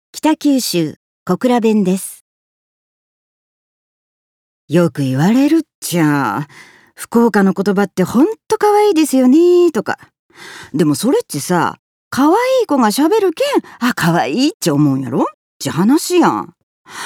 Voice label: 小倉弁

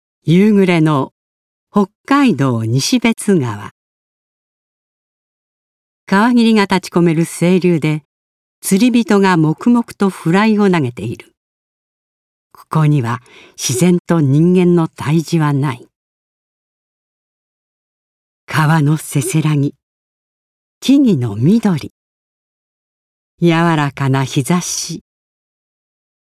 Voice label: 語り

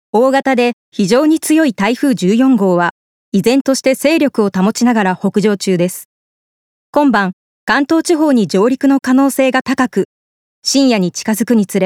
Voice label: ニュース

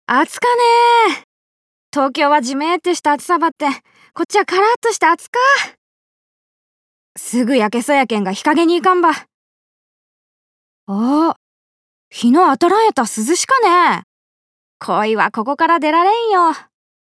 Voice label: 五島列島方言

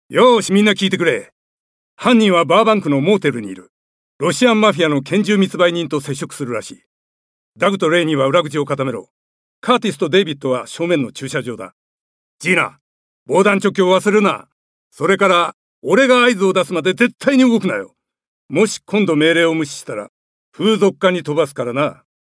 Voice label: 指示を出す警部

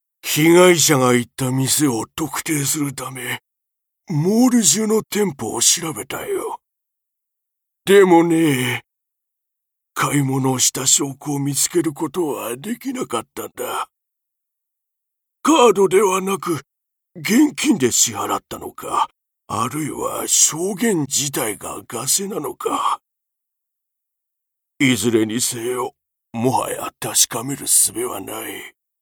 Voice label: 老人

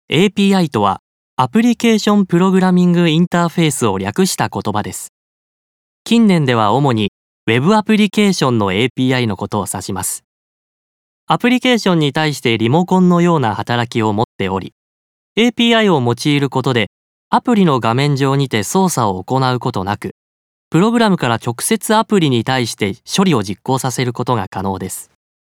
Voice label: ストレートナレーション

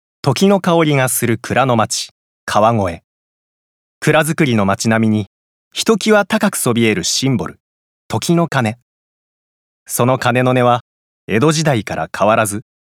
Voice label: 紀行ナレ

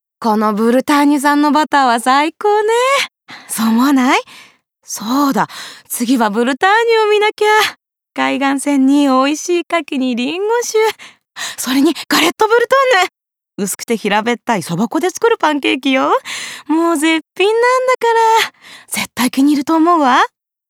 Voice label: ナチュラル

